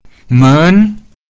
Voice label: men2, pinyin